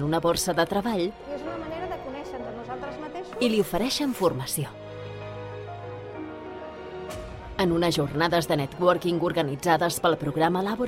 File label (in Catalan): catala corporatiu veu propera serveis socials musica